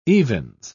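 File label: "evens", British English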